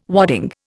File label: "wadding", Indian